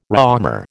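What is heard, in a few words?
"Réaumur", American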